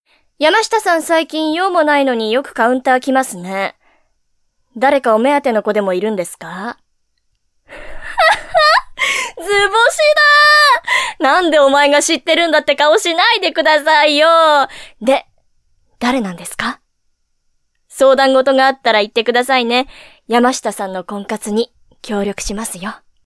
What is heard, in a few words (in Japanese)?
sewayaki stereo